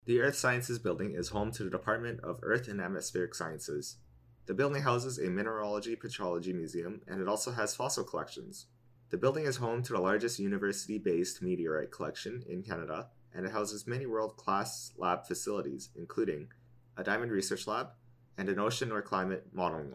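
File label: Earth Sciences Building - English; NSO Tour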